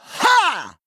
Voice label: Human Male Attack